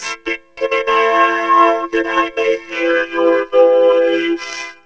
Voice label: speak, vocoder; Speak to me now that I may hear your voice